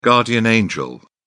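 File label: "guardian angel", British English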